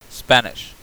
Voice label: spanish